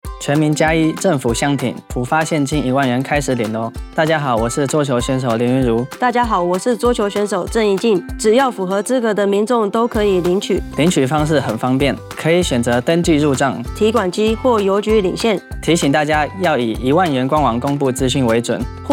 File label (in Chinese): 廣播 國語版; 全民+1 政府相挺政策宣導篇廣播(林昀儒鄭及怡靜合作代言)-國語版